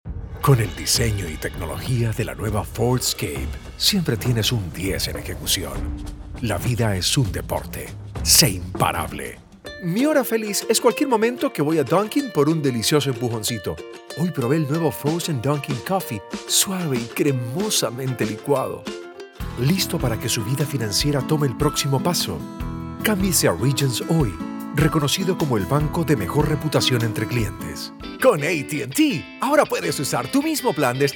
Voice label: spanish latin american commercial